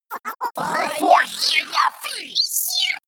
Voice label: crazy talk